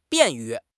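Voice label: "便于", Chinese; HSK6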